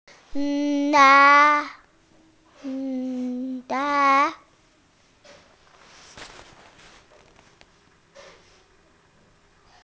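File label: "na2", Mandarin Chinese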